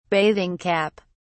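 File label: "bathing cap", British English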